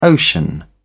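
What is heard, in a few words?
"ocean", British English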